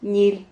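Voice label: "ñil", Spanish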